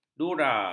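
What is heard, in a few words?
"dura", Malay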